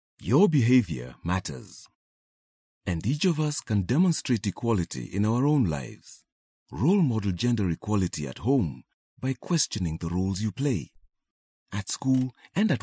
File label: male african voice over narrative